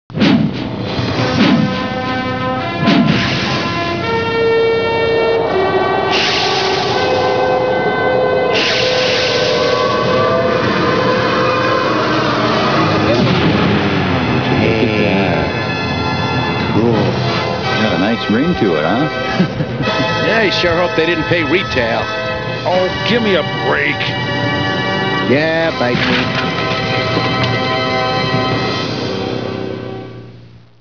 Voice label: promo scifi